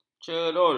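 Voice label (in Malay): "cerul", Malay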